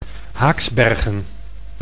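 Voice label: "Haaksbergen", Dutch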